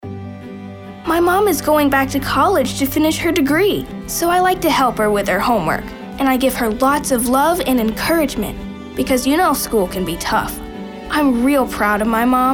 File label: back to school mom